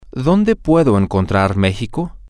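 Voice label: where can I find mexico, spanish, male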